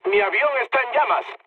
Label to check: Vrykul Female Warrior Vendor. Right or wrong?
Wrong